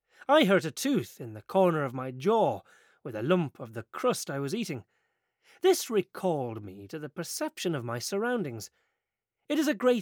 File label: Irish